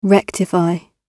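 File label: "rectify", British English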